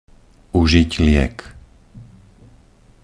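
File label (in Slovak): "užiť liek", Slovak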